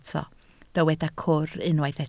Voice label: "cwr", Welsh

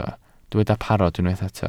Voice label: "parod", Welsh

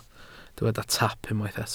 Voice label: "taap", Welsh

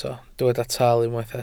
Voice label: "tal", welsh

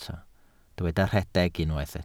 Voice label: "rhedeg", Welsh

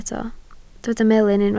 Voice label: "melyn", Welsh

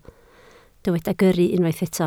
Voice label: "gyrru", Welsh

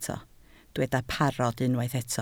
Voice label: "parod", Welsh

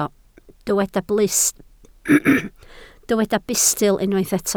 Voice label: "bustl", Welsh

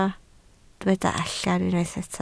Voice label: "allan", Welsh

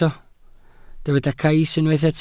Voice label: "cais", welsh

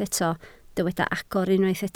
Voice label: "agor", Welsh

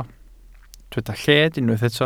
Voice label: "lled", welsh

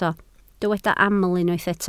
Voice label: "aml", Welsh

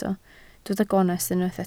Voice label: "gonest", Welsh

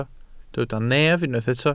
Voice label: "nef", Welsh

